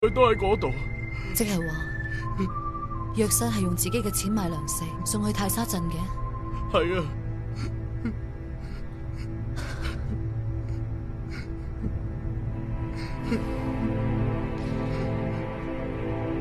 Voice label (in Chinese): 粤语; 《长剑风云》